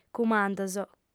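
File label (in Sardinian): "cummandos", female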